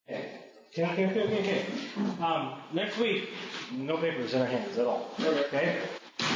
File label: ANNOUNCEMENTS